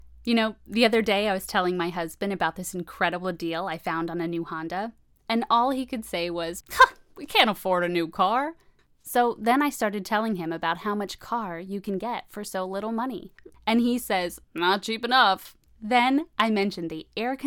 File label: commercial reel